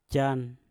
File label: "jan", Mpur; rumah